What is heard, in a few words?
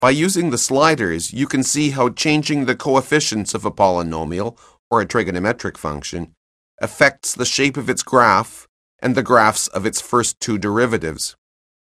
narration; CALCULUS 7E; cengage